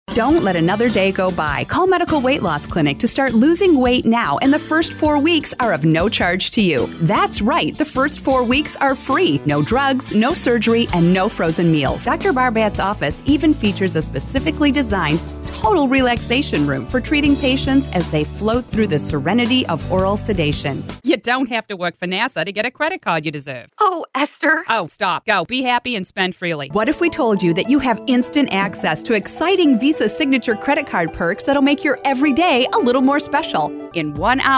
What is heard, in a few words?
Commercial Demo